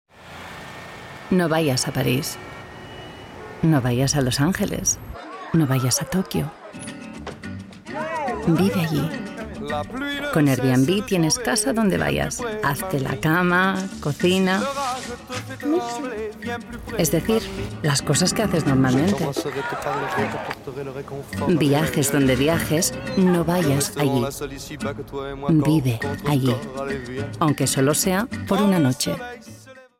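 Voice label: European Spanish, Commercial; GoLocalise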